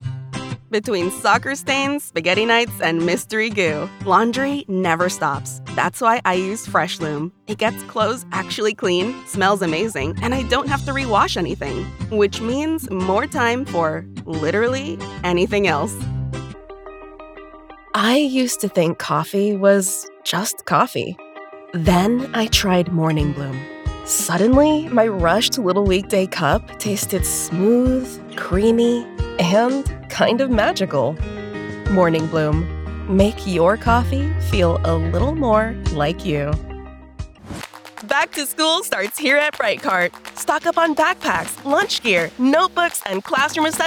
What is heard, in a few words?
Commercial